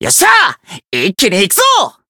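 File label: limit break, Japanese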